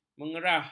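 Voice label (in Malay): "mengerah", Malay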